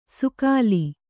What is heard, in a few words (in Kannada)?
"ಸೂಗಲಿ", Kannada